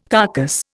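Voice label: "carcass", Indian English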